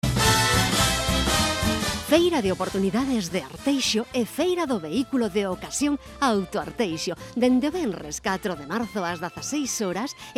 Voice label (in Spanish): radio voz; Cuna